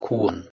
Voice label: "kurn", Proto Germanic

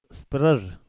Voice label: "spraZ", Pashto

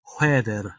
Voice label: "whether", Middle English

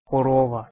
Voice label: "korova", Ukrainian

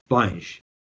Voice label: "panZ", Romani

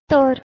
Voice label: "tar", Marathi

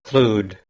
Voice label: "hlud", Anglo Saxon

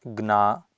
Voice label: "gnaa", Sanskrit

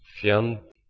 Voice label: "fijand", Proto Germanic

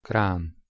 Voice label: "cran", Anglo Saxon

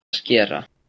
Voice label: "skera", Proto Germanic

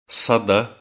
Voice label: "sada", Ossetian

